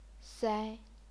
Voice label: "sai1", chinese pinyin

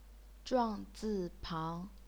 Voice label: zhuang4 zi4 pang2, Chinese pinyin

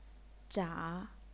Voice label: "zha3", chinese pinyin

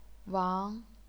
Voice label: "wang2", chinese pinyin

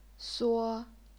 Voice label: "suo1", chinese pinyin

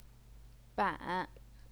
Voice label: "ban3", Chinese pinyin

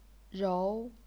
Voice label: "rou2", chinese pinyin

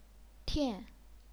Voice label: "tian4", chinese pinyin; 掭

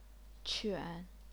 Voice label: "quan3", chinese pinyin

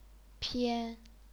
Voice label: "pian1", chinese pinyin

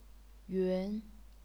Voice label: "yun2", chinese pinyin

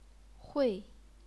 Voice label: "hui4", chinese pinyin

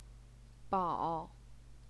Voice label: "bao3", Chinese pinyin

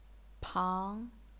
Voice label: "pang2", chinese pinyin

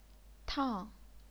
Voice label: "tang4", chinese pinyin

